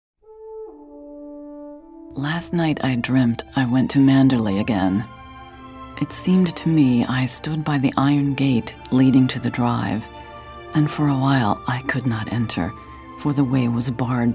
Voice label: narration prose